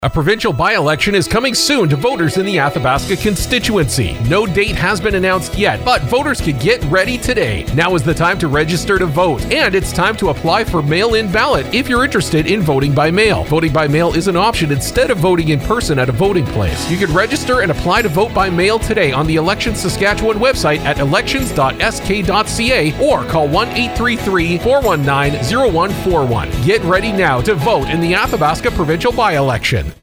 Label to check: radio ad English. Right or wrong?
Right